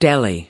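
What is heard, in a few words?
"deli", US English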